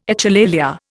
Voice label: "echolalia", Indian